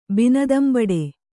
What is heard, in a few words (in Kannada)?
"ಬಿನದಂಬಡೆ", Kannada